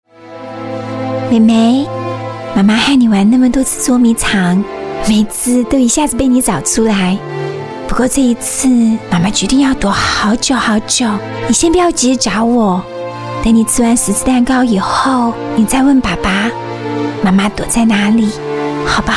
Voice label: chinese voice over female narrator

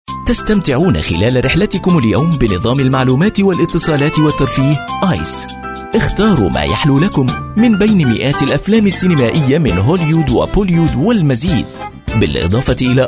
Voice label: arabic voice over male commercial

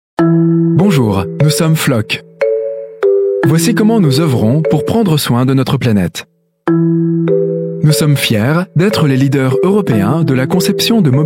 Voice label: french voice over male narrator

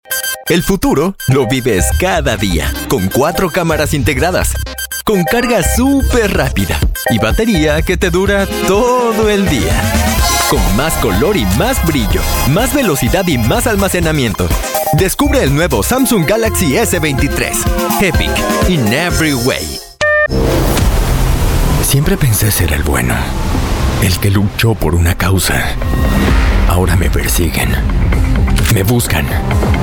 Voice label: spanish voice over male commercial

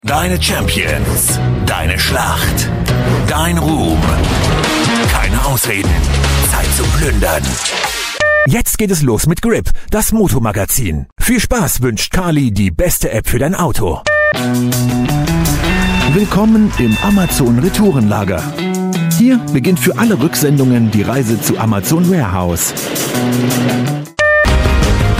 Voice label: german voice over male commercial

